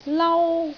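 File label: "lau1", Cantonese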